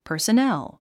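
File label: "personnel", English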